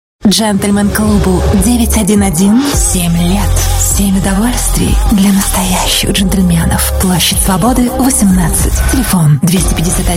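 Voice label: russian female; lektoring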